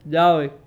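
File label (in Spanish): "llave", Cuba, Havanna; Kuba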